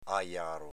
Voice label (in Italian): "ajaro", Veneto